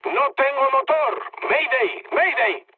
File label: damaged engine, mood med, speech; War Thunder